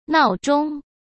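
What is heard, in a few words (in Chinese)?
"闹钟", Mandarin Chinese; hsk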